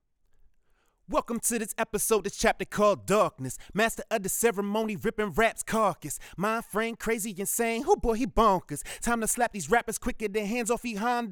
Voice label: MXL990 male vocal rap 12 inches from mouth